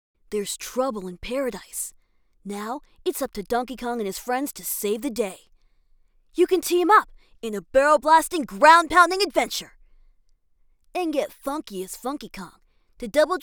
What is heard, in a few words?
Teen Boy Commercial Dry Sample